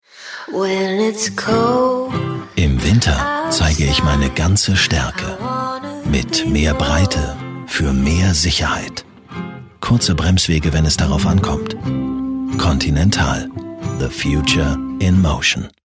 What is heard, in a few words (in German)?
Werbespot; Continental